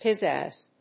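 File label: "pizzazz", English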